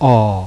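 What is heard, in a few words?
unrounded open back script a; lausanne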